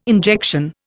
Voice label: "injection", Indian